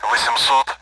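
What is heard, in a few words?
Speech Sound Russian Common AWACS Numbers; DCS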